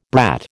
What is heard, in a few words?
"brat", American